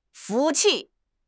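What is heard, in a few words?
"服气", Mandarin Chinese; HSK; HSK6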